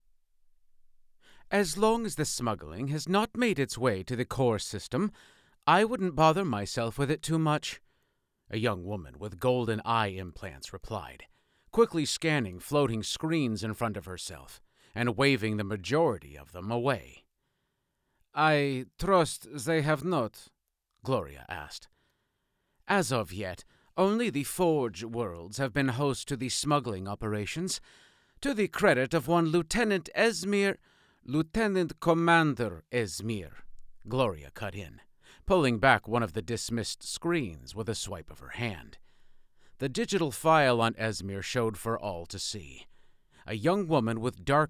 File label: Female Conversation Russian Accent; audiobook narrator